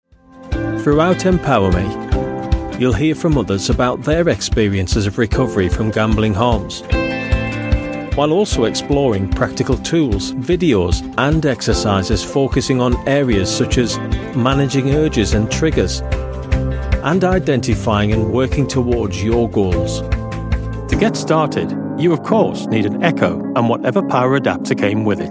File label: corporate